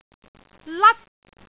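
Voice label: "lat1", Cantonese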